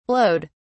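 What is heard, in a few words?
"blowed", British English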